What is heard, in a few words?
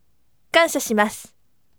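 voice, firm; kansya shimasu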